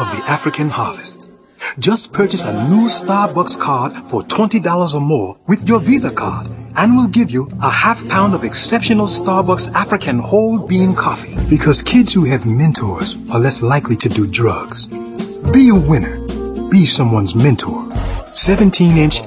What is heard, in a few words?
male, mid 30s mid 40s, cool